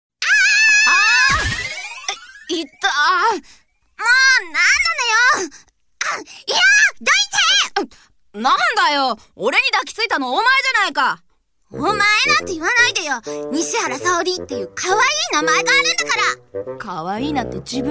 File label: japanese animation